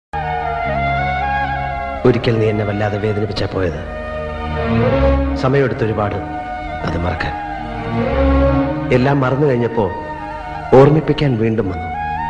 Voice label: bgm romantic dialogue sad dialogue; minnaram; mohanlal

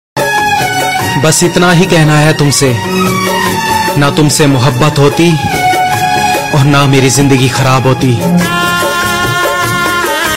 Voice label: sad shayari ringtone dialogue ringtone; bewafa shayari; hindi; sad song ringtone

